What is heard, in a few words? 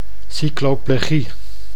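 "cycloplegie", Dutch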